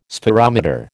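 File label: "spherometer", American English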